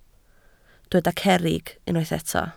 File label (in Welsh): "cerrig", Welsh